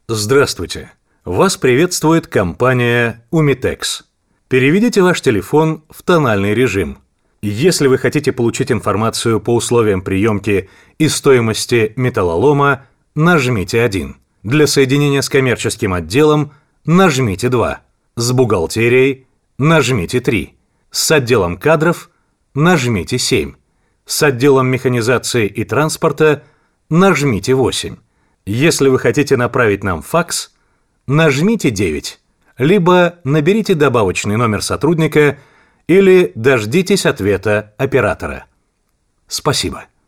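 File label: Russian, interactive voice response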